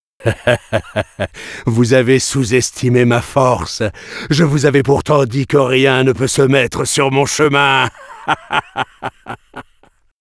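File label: outro fail French; arthur and the revenge of maltazard; Wii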